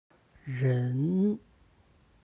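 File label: "ren", Chinese; 人; HSK Level One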